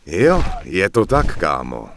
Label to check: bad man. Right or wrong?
Wrong